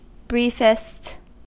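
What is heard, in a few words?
"briefest", US English; pronunciations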